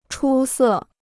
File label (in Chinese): "出色", Chinese